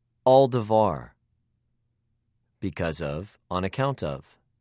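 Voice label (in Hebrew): "עַל־דְּבַר", Hebrew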